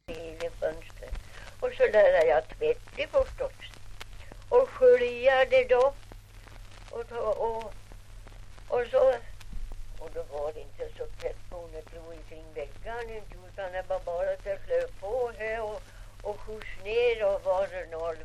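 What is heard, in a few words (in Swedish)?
Ovansjö Gästrikland, dialekter; Folkminnen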